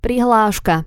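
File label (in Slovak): "prihlaska", Slovak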